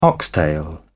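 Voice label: "oxtail", British English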